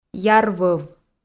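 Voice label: "яр вӧв", Komi, female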